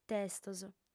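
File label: "testos", lingua sarda, female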